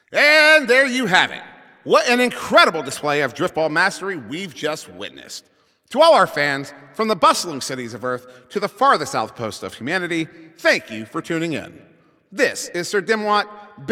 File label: Announcer Game Ends